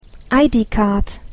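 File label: "ID card", English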